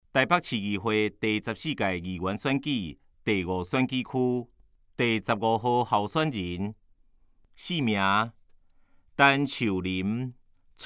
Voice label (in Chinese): 台語; 臺北市議會第14屆議員第5選舉區 (中正、萬華區)候選人介紹; 陳樹霖; 有聲公報